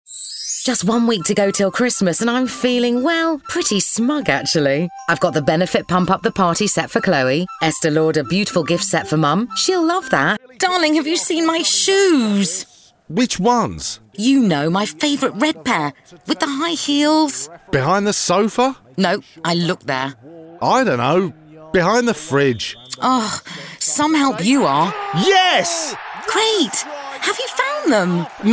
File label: Commercial Voicereel